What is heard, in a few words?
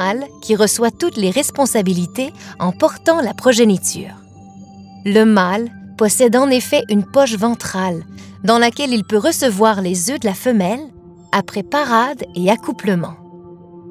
NARR Hippo